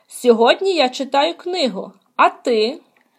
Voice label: dialogue, Ukrainian